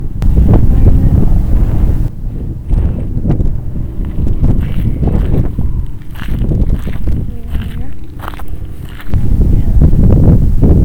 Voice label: cemetery female